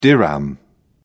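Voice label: "dirham", British English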